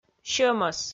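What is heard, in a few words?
"сьӧмӧс", Komi, female; kpv audio dict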